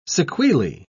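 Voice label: "sequelae", British English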